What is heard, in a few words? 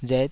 "ret5", taiwanese